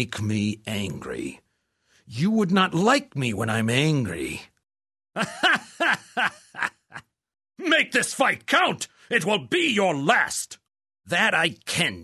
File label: male warrior medly, neutral warrior male; Neverwinter Nights